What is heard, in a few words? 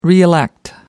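"reelect", English, uk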